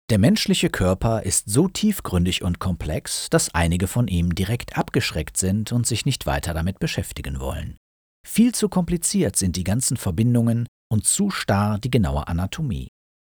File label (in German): Studio Ohne FX; Studio Demo